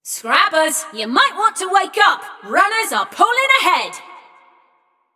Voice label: Scrap Run Runners Lead, Announcer; Flux

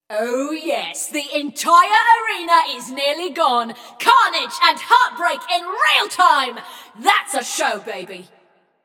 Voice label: Scrap Run Entire Arena Nearly Gone, Announcer; Flux

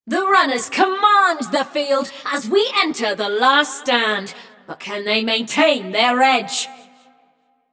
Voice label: Runners Lead, Announcer; Flux; Scraprun

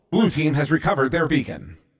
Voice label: Recovered Blue, Announcer

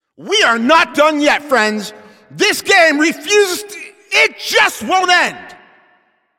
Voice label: Announcer Overtime Starts

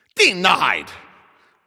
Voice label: Announcer Goalie Save

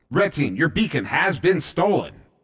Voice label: Stolen Red, Announcer

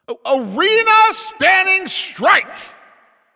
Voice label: Announcer Long Hit To Other Side

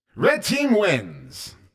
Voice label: Wins Red Team, announcer

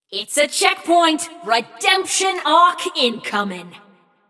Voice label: Checkpoint Opened, Announcer; Flux; Scraprun

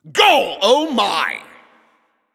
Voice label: Goal Scored, Announcer

